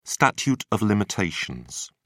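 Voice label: "statute of limitations", British English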